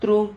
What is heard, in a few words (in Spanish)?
"tru", Silabas